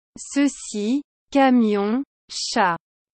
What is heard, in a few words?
Ceci camion chat french